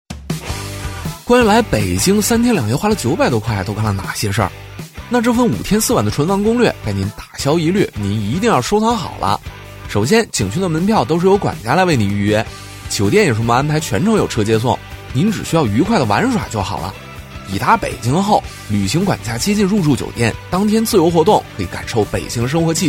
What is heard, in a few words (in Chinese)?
北京话男; 年轻活力; 素人自然